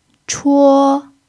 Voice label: "chuo1", Mandarin pinyin

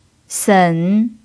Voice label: "sen3", pinyin

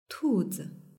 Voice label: "tuzi", Mandarin; 兔子; rabbit; animal

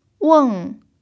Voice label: "weng4", Mandarin pinyin

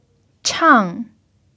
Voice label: "chang4", Mandarin pinyin

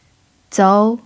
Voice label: "zou2", Mandarin pinyin

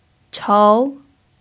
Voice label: "chou2", Mandarin pinyin

